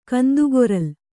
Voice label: "ಕಂದುಗೊರಲ್", Kannada

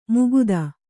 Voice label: "ಮುಕುತ", Kannada

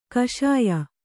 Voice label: "ಕಷಾಯ", Kannada

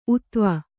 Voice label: "ಊತ್ವ", Kannada

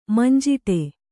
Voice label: "ಮಂಜಿಟಿ", kannada

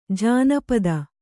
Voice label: "ಜಾನಪದ", Kannada

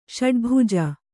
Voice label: "ಷಡ್ಭುಜ", Kannada

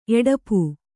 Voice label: "ಎಡುಪು", kannada

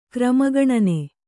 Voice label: "ಕ್ರಮಗಣನೆ", Kannada

